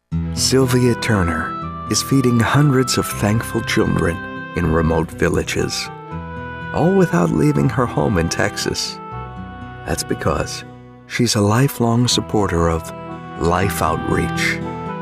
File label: Docu Inspir; Life Outreach